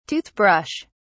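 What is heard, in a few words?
"tooth brush", British English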